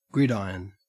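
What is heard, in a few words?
"gridiron", Australian English; pronunciations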